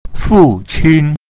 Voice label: fu qin, chinese